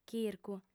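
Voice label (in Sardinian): "chircu", female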